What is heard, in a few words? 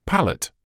"palate", English